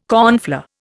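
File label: "cornflour", Indian English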